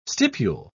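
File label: "stipule", English, British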